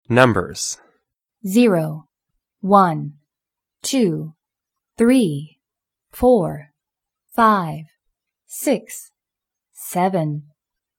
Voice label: English Numbers